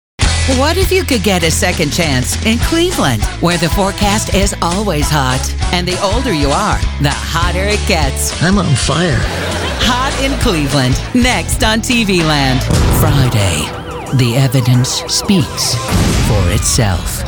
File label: women other network